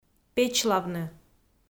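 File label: "печлавны", Komi, female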